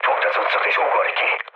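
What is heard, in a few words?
Hungarian bailout mood low, speech; War Thunder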